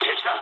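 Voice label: Japanese enemy detected mood low; War Thunder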